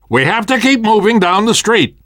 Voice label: world hospital, namvet, survivor voice

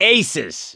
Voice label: hurrah, survivor voice; gambler

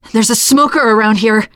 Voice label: heard smoker, teen girl; player; survivor; voice

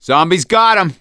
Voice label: hunter pounced, voice; Left 4 Dead 2; survivor; gambler

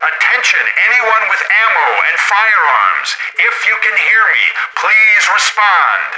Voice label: radio initial broadcast

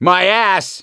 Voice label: reaction negative, voice; gambler

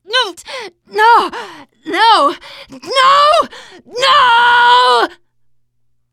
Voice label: grabbed by smoker, teengirl, voice; player; survivor

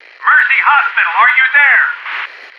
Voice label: hospital finale pickup loud, chopper pilot; Left 4 Dead 2; npc

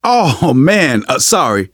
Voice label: sorry, survivor voice manager; Left 4 Dead 2; left4dead2 dlc2

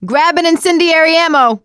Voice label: incend ammo, voice; survivor; producer

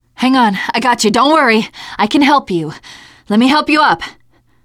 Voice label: ledge save, teengirl; player; survivor; voice

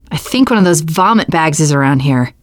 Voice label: heard boomer, teen girl

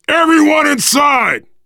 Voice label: stay together inside, voice, biker; Left 4 Dead 2; survivor

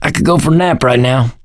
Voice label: going to die, survivor voice mechanic

